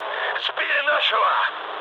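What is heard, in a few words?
Russian aircraft destroyed mood low, speech; War Thunder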